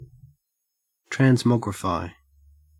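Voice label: "transmogrify", Australian English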